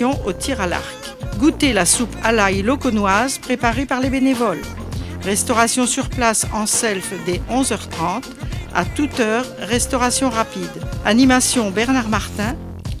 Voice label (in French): spot foire ail avec instru